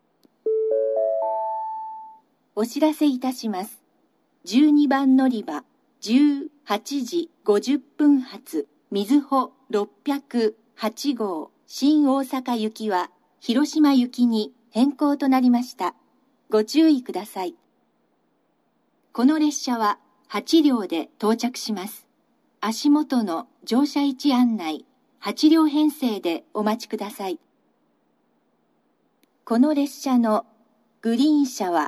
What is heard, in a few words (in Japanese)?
のりば案内放送, 新幹線ホーム; 12番のりば案内放送（みずほ608号 広島行き）※新大阪行きから広島行きに変更; kumamoto; 台風による影響で行先変更